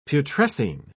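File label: "putrescine", British English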